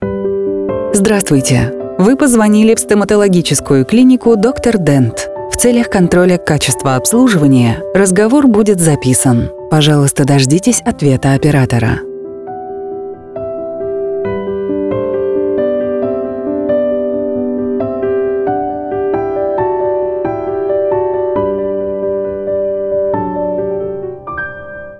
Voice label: ivr; Doktor dent